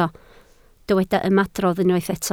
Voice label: "ymadrodd", Welsh

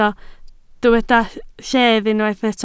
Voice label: "lled", Welsh

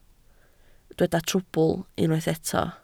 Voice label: "trwbl", Welsh

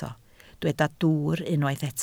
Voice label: "dwwr", Welsh

